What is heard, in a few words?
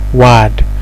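"wad", Nigerian Pidgin, male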